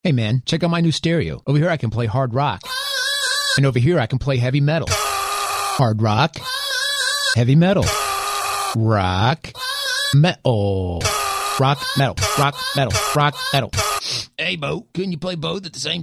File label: Radio Promo